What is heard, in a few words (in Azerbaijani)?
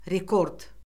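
"rekord", Azerbaijani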